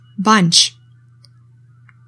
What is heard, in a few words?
"bunch", english; 3000 words